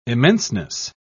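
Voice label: "immenseness", British English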